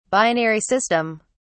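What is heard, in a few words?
"binary system", English, uk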